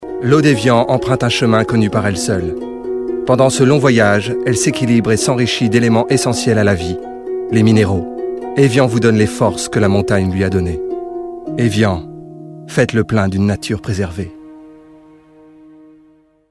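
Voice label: fr Werbung, französisch; sprecher; franzoesisch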